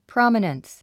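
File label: "prominence", English word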